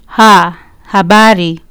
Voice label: "habari", Kiswahili